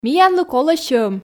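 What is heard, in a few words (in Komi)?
миянлы колӧ сьӧм, Komi, female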